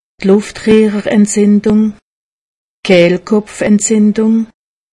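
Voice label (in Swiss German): "luftrehrentzindung", Alsatian; medecine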